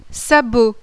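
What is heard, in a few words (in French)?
"SABOT", French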